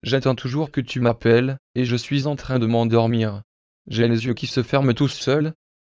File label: synth